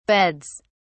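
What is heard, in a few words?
"beds", English, British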